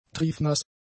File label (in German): "triefnass", German; adjectives